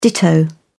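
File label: "ditto", British English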